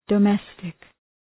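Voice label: "domestic", English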